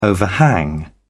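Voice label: "overhang", British English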